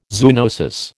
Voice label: "zoonosis", American